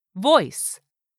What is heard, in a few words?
"voice", English word; 3000 words